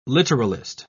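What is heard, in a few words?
"literalist", British English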